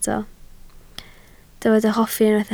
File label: "hoffi", Welsh